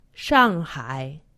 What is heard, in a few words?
"Shanghai", Chinese; Survival Chinese